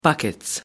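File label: "buckets", English, British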